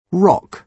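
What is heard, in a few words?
"rock", British English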